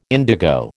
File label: "indigo", American